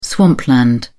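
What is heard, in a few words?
"swampland", British English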